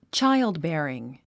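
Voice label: "childbearing", English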